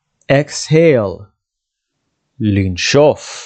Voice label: "exhale" – "לנשוף", dictionary